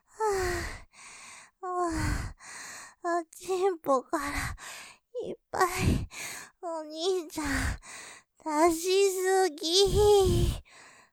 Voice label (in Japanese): 余韻◆おちんぽから・いっぱいだしすぎぃ◆吐息多めに; ループで楽しむ！シェフの気まぐれ抜き抜きコース; モノラル